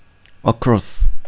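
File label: "across", Korean, male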